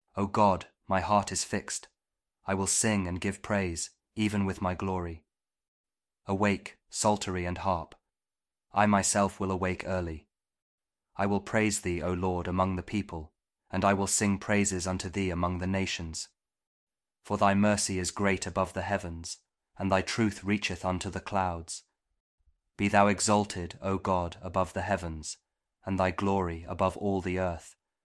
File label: psalm kjv king james audio bible; psalm 108